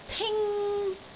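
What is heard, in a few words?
"ting1", Cantonese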